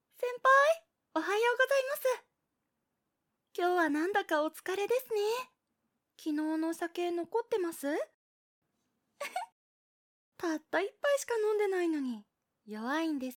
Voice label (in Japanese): ボイスサンプル女性 会社のゆるふわ後輩